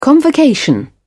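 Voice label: "convocation", British English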